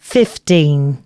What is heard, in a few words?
announcements, female